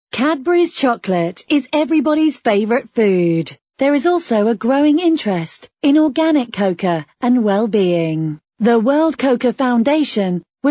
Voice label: American English, F